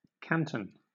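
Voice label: "canton" English, British accent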